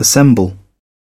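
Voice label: "assemble", British English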